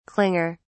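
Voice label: "clinger", British English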